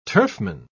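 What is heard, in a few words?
"turfman", British English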